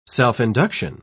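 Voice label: "self-induction", British English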